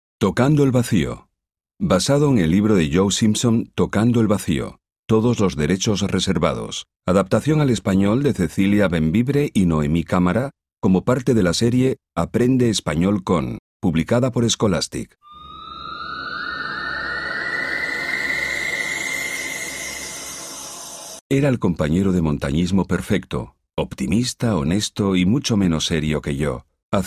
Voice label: spanish audiobooks